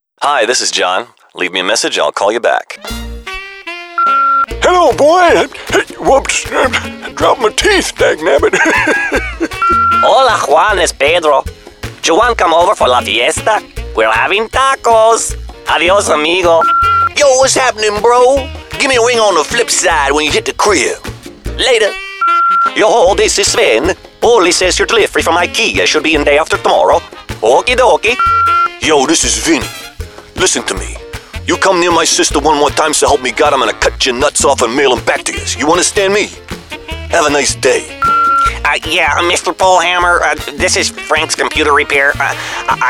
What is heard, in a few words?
Character